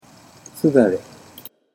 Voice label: "sudare", Japanese

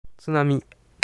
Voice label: "tsunami", Japanese

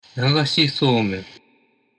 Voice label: "nagashisoumen", Japanese

